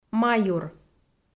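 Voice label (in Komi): "маюр", Komi, female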